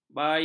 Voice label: "baya", ms

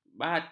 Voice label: "bati", ms

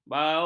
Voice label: "baung", ms

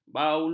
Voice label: "baulu", ms

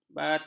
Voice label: "batas", ms

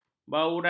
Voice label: "bauran", ms

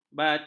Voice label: "batik", ms

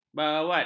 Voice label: "bawat", ms

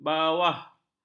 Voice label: "bawah", ms

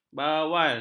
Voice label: "bawal", ms

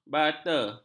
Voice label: "bata", ms

